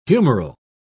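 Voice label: "humoral", English, British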